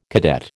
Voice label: "cadet", American